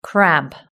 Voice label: "crab", british english